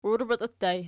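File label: "uirbeti" ergative plural, Archi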